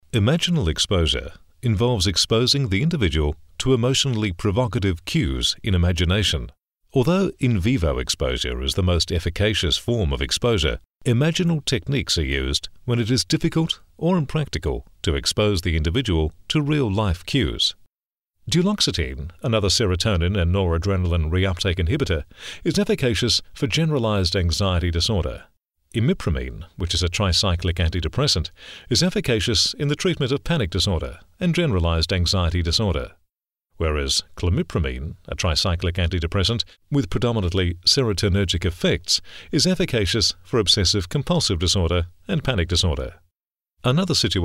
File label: Medical narration